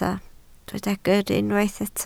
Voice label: "gyrru", Welsh

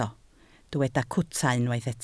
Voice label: "cwta", Welsh

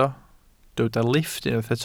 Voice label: "lifft", Welsh

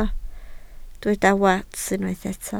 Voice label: "wats", Welsh

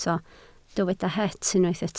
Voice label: "het", Welsh

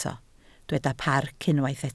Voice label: "parc", Welsh

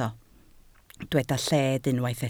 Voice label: "lled", Welsh

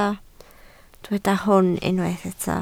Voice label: "hwn", Welsh

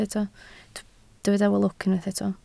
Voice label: "lwc", Welsh

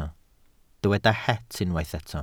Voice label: "het", Welsh

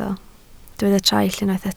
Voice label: "traill", Welsh

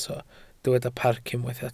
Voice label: "parc", Welsh

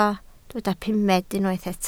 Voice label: "pumed", Welsh

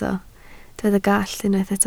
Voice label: "gallt", Welsh

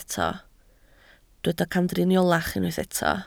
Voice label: "camdriniolach", Welsh

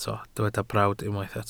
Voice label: "brawd", Welsh

